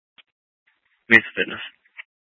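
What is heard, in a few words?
Police Dispatch, Scanner audio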